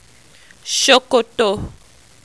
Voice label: "Sokoto", Yoruba